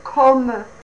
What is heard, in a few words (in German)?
"kammer", fraenkisch